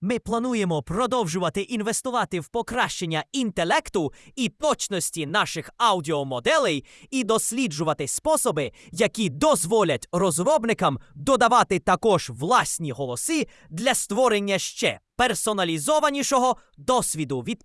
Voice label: ballad mad scientist; openai fm